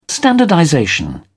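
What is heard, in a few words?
"standardization", British English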